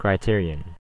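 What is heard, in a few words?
"criterion", American English; pronunciations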